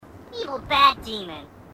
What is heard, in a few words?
grunt dopey; h2dialogue